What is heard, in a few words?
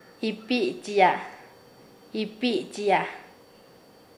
Nasa Yuwe; invitar